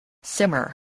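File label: "simmer", spoken word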